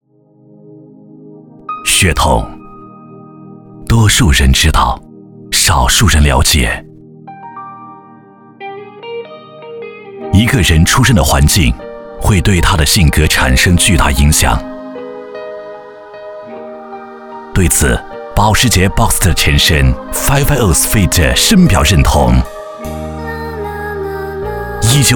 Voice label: 男 汽车; 保时捷-话筒

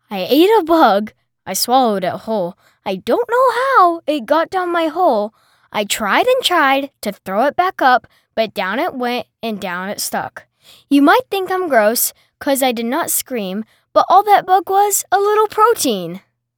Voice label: 美式男童 干音

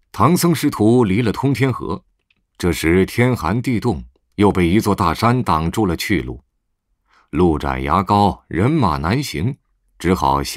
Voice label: 男 有声书角色类; 西游记

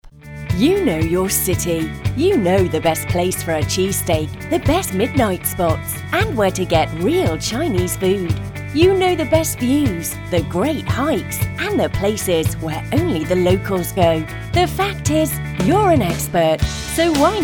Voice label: 外籍英女 吸引力十足 分享

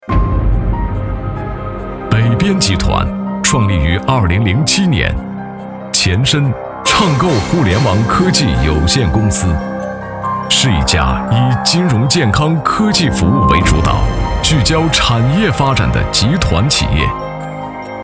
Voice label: 男 专题; 北边集团

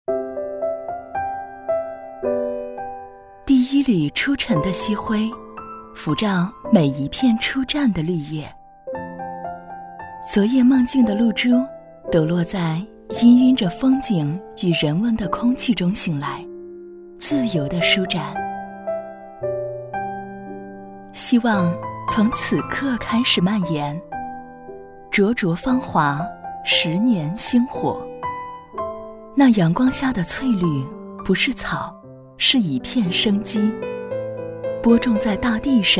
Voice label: 女 专题 幼儿园; 晨辉幼儿园

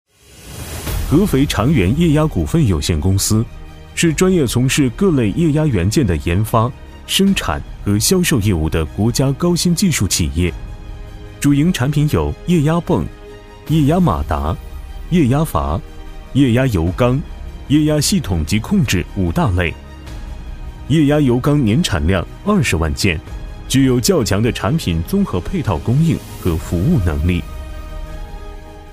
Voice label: 男 企宣; 合肥长源

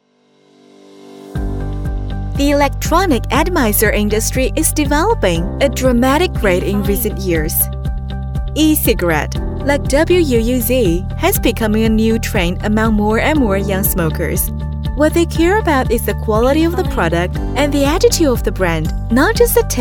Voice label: 女 英文专题; 闪雾电子烟

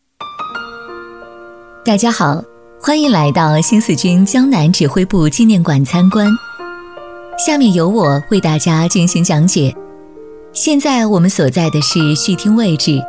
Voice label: 女 导览词 纪念馆; 丰碑纪念馆

